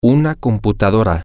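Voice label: a Computer, Spanish, male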